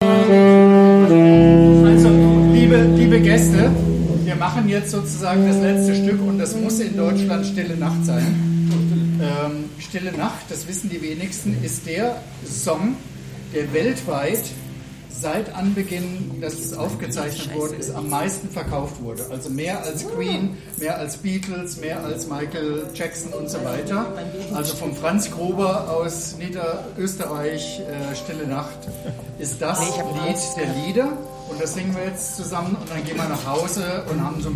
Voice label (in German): Ansage; Stille Nacht; JASS – Jam Session Saarburg